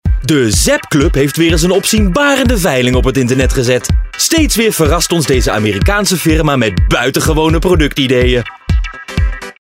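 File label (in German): werbung, Dutch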